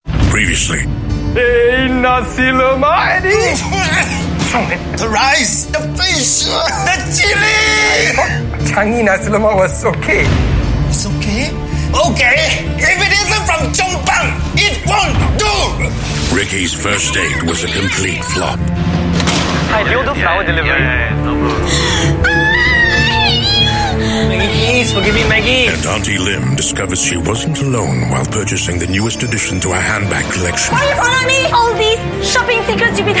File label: Asian English TVC; inSing